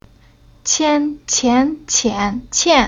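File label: "Qian", Chinese syllable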